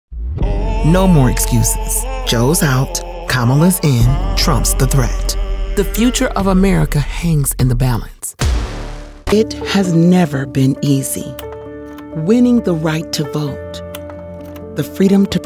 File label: Political Black Female; Demo